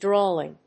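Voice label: "drawling", English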